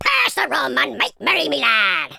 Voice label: victory, Pirate